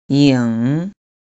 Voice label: "ying3", pinyin